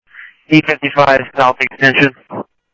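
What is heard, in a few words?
police radio scanner traffic, Mesa Simulcast; MESA PD 1 Fiesta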